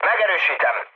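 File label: player killed enemy, mood med, Hungarian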